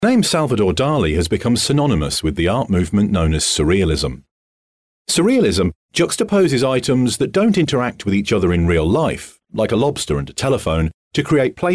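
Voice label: British English, male, narration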